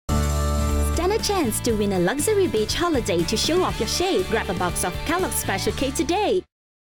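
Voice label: English; KELLOGGES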